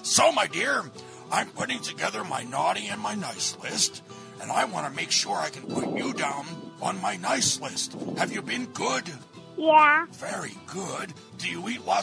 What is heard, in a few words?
santa call